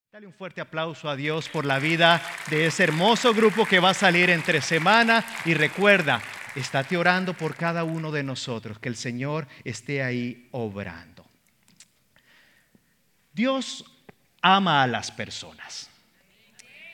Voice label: SERMON SPA